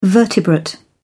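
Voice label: "vertebrate", British English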